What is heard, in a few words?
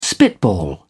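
"spitball", British English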